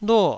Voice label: "luo4", Taiwanese syllable

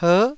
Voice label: "per9", taiwanese

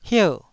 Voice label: "hier4", taiwanese

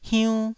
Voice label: "hiunn1", Taiwanese syllable

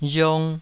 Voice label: "riong2", taiwanese

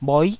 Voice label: "bhoi9", taiwanese Syllables

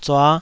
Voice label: "zua5", taiwanese

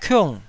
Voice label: "kiung4", taiwanese syllable

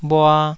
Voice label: "bhua2", taiwanese Syllables

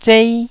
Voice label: "zei1", Taiwanese

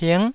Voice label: "ping9", Taiwanese

